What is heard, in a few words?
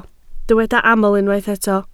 "aml", Welsh